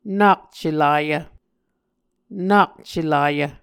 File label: "nak celayv", Muscogee